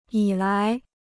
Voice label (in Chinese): "以来", Chinese